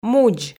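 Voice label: "мудз", Komi, female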